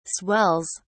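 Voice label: "swells", British English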